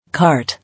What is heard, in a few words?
"Cart", English